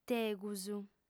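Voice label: "tegus", Sardinian, female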